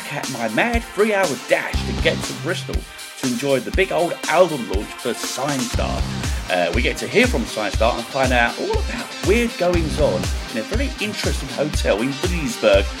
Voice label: breakfast show trailer; sinestar